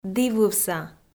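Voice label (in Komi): "дівывса", Komi, female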